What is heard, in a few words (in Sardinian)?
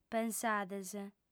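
"pensades", female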